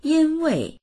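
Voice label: "yin1wei4", Mandarin Chinese; 因为; yīnwèi; ～なので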